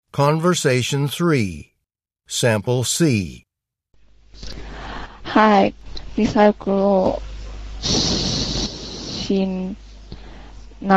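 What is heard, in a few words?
japanese conversation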